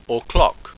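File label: "o'clock", english speak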